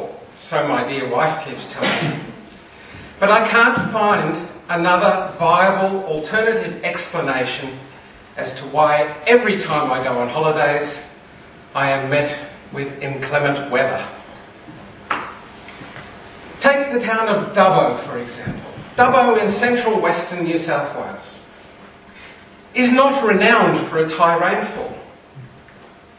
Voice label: toastmasters humorous speech; i am not a rain god